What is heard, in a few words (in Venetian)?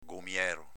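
"gomiero", Veneto dialect; s.m. vomere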